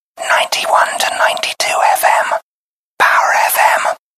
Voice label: whisper; jingles